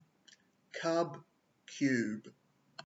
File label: "cub" "cube"